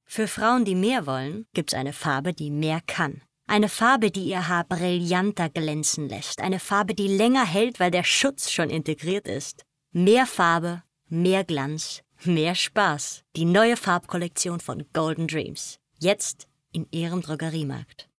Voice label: Werbung Haarcoloration; LOFT VOICES

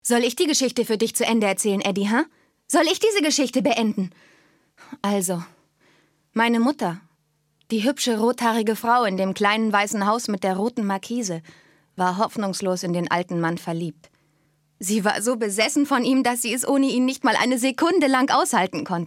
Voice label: synchron

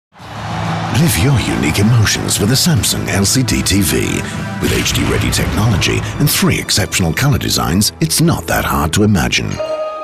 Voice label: uk englisch

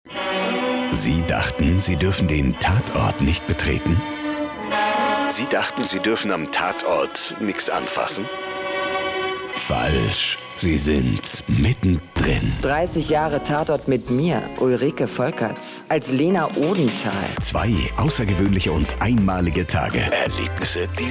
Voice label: Trailer; Tatort

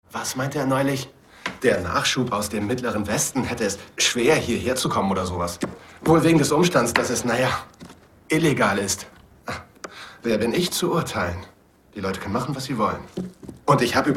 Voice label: synchron; the lookalike; LOFT VOICES

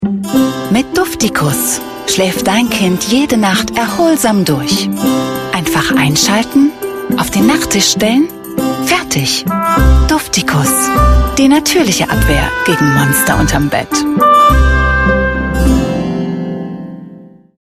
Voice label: DEMO Werbung Lufterfrischer

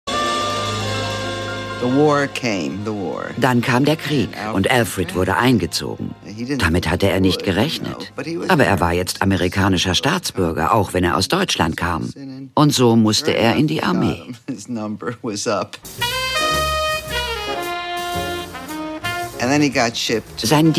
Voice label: Doku, Overlay, German; It Must Swing 02 | Doku

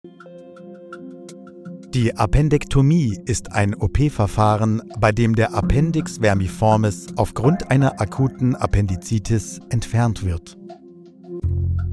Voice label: E Learning Medizintechnik

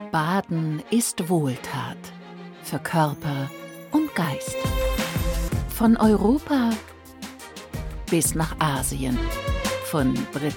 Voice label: Doku Sprachprobe kurz; Badehaeuser

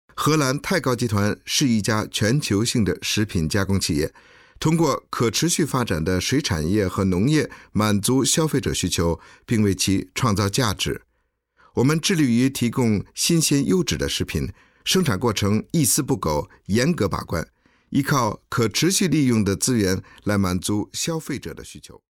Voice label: chinesich

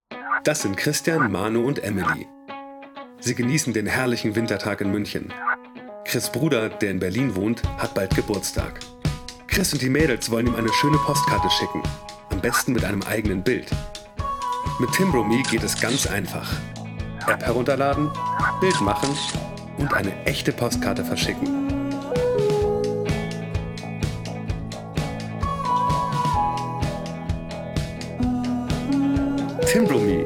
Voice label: werbung handyapp

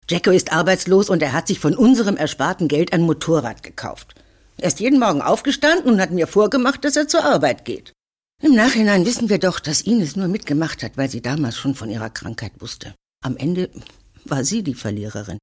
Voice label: synchron

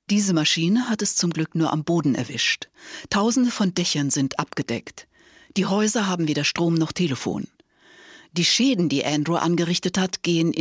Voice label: kommentar

